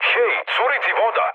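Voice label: Serbian damaged water mood med; War Thunder; speech srb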